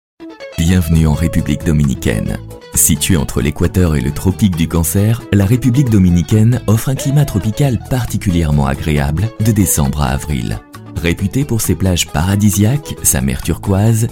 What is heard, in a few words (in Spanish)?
narración francés